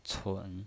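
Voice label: "cun", Mandarin Chinese pinyin voice, third tone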